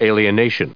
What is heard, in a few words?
"alienation", us